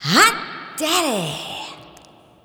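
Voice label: Hot Daddy Spring Reverb, Swingin Chiks Spoken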